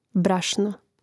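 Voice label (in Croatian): "brašno", Hrvatski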